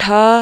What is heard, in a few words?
"Tha", marathi